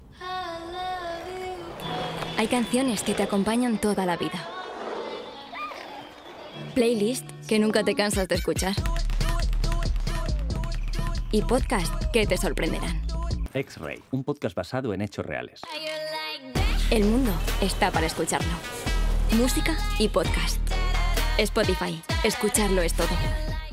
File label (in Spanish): Joven Amable; Spotify